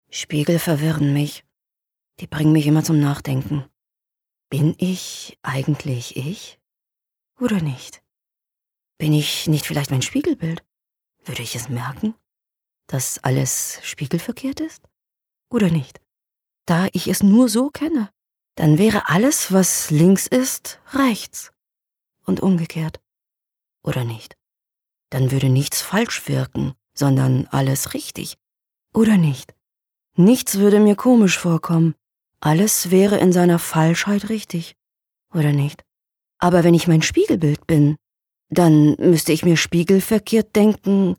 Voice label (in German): Innerer Monolog